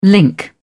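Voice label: "link", British English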